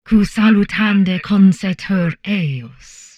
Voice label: humanoid portrait female; Stellaris; humanoids

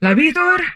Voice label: humanoid female; Stellaris; humanoids

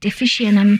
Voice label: humanoid female; Stellaris

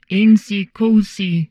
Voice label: humanoid portrait female; Stellaris; humanoids

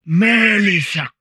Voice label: humanoid portrait male; Stellaris; humanoids

